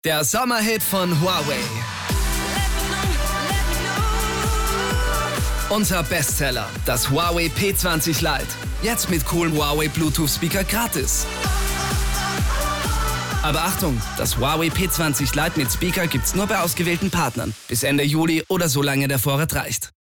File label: SPOT; Huawei 2018 Sommerhit